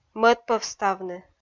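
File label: "мӧдпӧвставны", Komi-Zyrian, female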